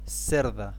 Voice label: Sow spanish